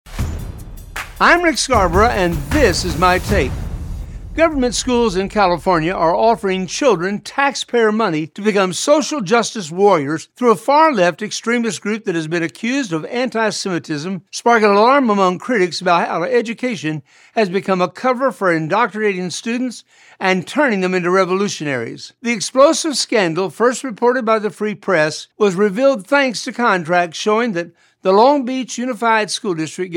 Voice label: radio spot; Wake UP PArrents